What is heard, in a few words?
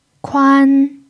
"kuan1", chinese